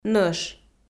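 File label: "нӧш" Komi-Zyrian, female; kpv audio dict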